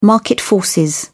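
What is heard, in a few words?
"market forces", English, British English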